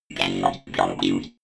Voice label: robot voice cannot compute fx